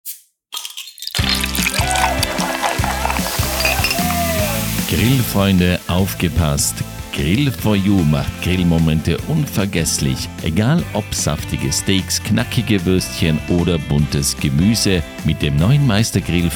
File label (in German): Werbespot; Grill for You